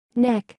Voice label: "Neck", English